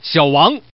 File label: Man